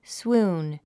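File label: "swoon", English word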